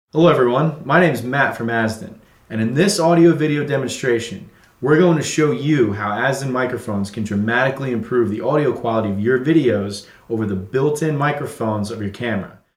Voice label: Broadcast Quality Shotgun XLR Microphone Voice; SGM 3416; Azden SGM 3416